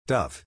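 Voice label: "dove", American English; dove /dʌ́v; ハト、純潔な 人、かわいい人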